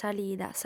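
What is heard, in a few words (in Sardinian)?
"salida", Sardinian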